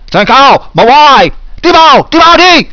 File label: viet enemy monster